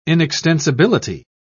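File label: "inextensibility", British English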